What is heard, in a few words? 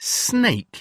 "snake", English word; pets